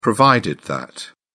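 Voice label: "provided that", British English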